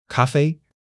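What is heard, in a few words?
"ka1 fei1", Chinese; 基础汉语40课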